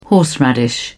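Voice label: "horseradish", British English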